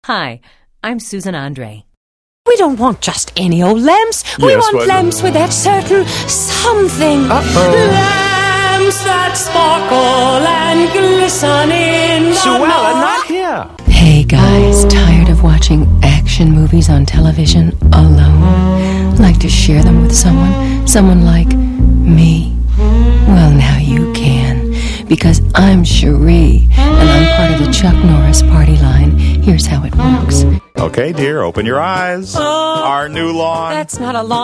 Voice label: voiceover character women